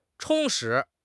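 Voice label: "充实", Chinese; HSK6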